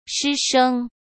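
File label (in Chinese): "师生", Mandarin Chinese; hsk; chinese-flashcards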